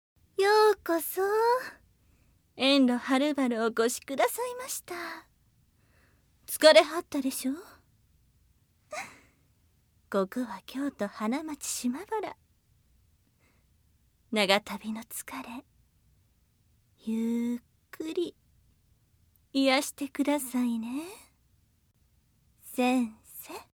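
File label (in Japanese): 女性 台詞 関西弁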